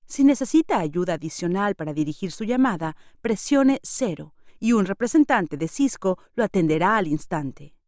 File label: female spanish mexican neutral spanish latam ivr; cisco; Devine Voices